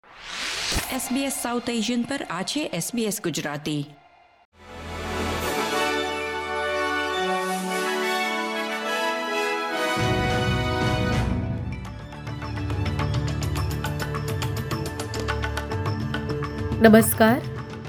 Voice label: gujarati news bulletin; ૨ ડિસેમ્બર ૨૦૨૫: ઓસ્ટ્રેલિયાની મુખ્ય અપડેટ; SBS Gujarati